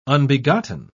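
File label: "unbegotten", British English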